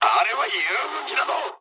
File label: player friendly fire mood med, speech jp